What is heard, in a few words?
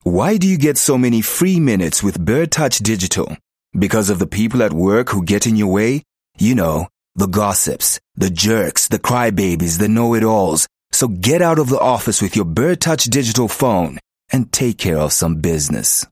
English conversational